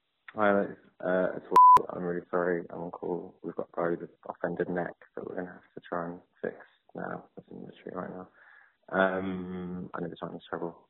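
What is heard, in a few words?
doc voicemail; the neuro and the neurotic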